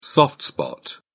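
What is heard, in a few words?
"soft spot", British English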